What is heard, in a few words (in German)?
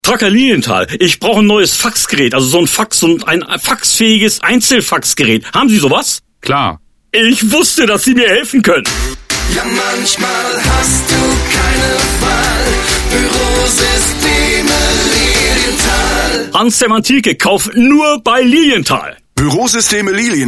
Spot